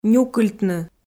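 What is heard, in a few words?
"нюкыльтны", Komi, female; kpv audio dict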